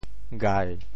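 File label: "gai7", Teochew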